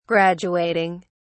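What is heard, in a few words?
"graduating", English, British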